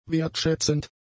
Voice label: "wertschätzend", German; adjectives

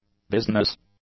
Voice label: "business", English; adjectives

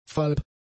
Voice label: "falb", German; adjectives

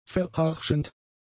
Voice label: "verharschend", German; adjectives

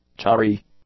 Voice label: "chary", English; adjectives

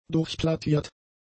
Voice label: "durchplattiert", german; adjectives

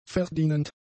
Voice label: "verdienend", German; adjectives

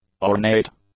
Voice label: "ornate", English; adjectives

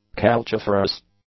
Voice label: "calciferous", English; adjectives

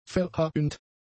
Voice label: "verhauend", German; adjectives

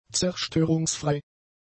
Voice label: "zerstörungsfrei", German; adjectives

